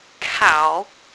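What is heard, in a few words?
"kao3", Mandarin Chinese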